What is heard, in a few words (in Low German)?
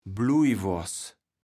"Bluijwoars", kaevels platt